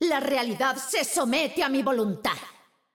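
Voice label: European Spanish; 秩序之光（守望先锋）; 终极技能; 欧洲地区; 西班牙语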